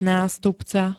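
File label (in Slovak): "nastupca", Slovak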